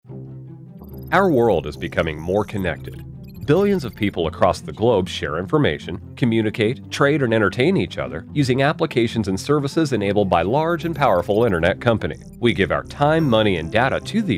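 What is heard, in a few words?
Explainer, American English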